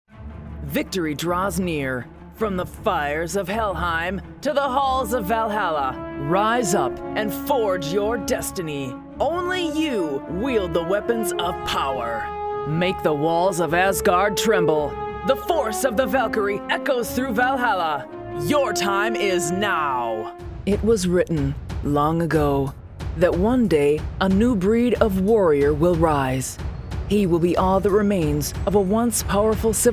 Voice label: Gaming Trailer